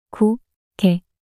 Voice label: 고개 낱소리 여자

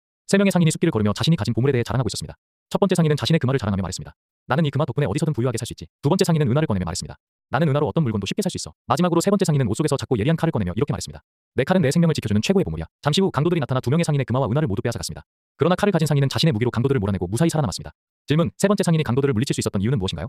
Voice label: 이야기 남자; 세명의 상인

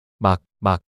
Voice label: 막막 낱소리 남자

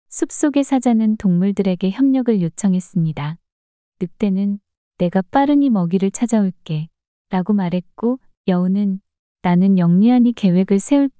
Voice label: 이야기 여자; 사자와 동물들의 교훈

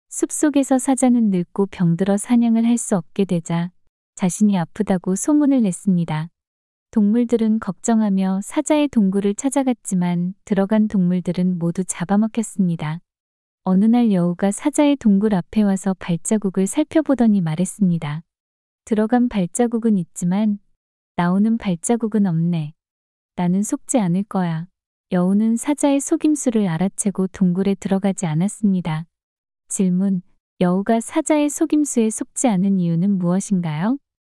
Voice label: 이야기 여자; 사자의 속임수와 여우

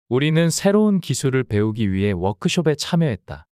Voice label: 문장 남자; 우리는 새로운 기술을 배우기 위해 워크숍에 참여했다

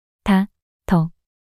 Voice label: "다더" 낱소리 여자

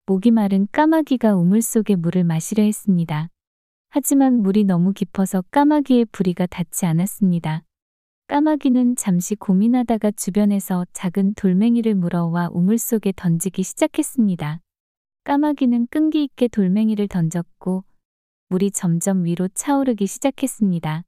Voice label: 이야기 여자; 까마귀와 물독

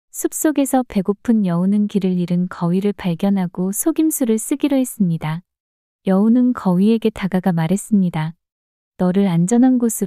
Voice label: 이야기 여자; 거위와 여우

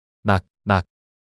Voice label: "낙낙" 낱소리 남자

